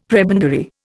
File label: "prebendary", Indian; Dictionary